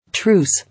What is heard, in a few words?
"Truce", English